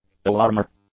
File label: "alarmer", English; nouns